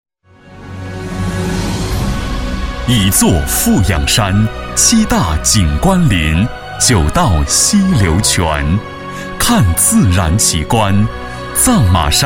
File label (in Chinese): 男3-旅游广告, 国语男声配音; 藏马山森林公园